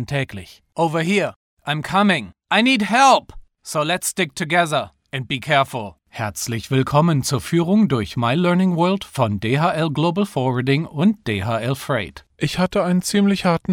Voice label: german commercial; Mid-range casual German voice.